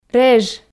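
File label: "реж", Komi, female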